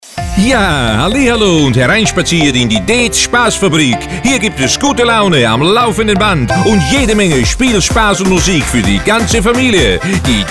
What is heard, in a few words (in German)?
Radiospot; dez Fasching